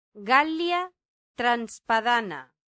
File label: "gallia transpadana", Latin; santillana